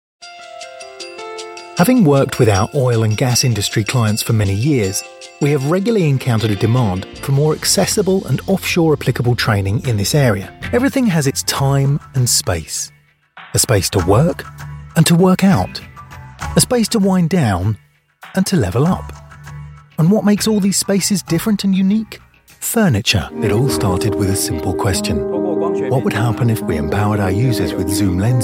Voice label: corporate